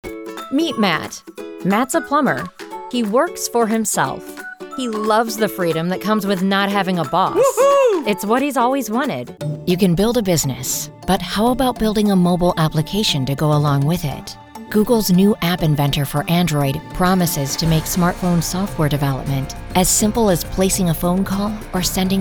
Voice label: radio TV